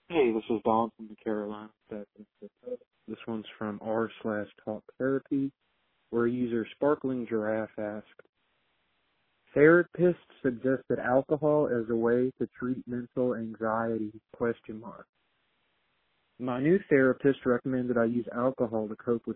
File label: Voicemail